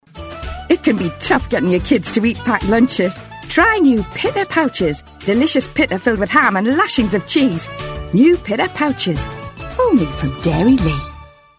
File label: Commercial; Dairylea